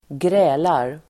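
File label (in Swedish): "grälar", Swedish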